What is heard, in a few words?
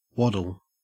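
"waddle", Australian English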